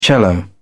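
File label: "cello", British English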